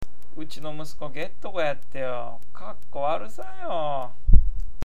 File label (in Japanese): "gettoko", awaji ben